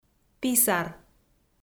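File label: "писар", Komi, female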